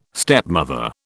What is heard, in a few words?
"stepmother", British